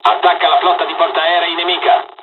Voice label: bombing, dialogs italian; midway us; War Thunder